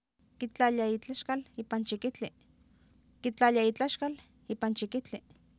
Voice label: kitlaalia iitlaxkal iipan chikihtli, Oapan minimal pairs female; Oapan minimal pairs 1998